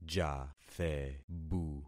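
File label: "jathebu", concatenated syllables; Affixing experiment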